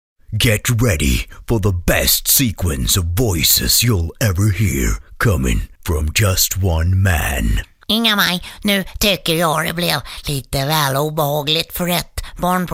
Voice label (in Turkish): Isvecce Erkek; seslendirme